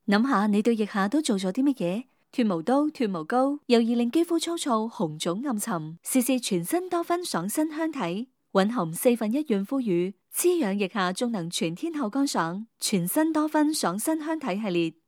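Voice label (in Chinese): 女粤16 港式粤语广式粤语 娓娓道来 积极向上 时尚活力 亲切甜美; DOVE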